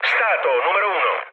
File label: chat, speech it; War Thunder